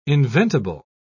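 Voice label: "inventible", British English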